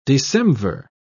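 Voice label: "decemvir", English, British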